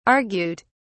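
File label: "argute", British English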